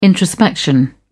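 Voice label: "introspection", English, uk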